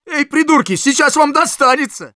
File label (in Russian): Scout taunts ru